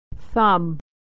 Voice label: "thumb", pronunciation